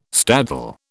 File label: "staddle", British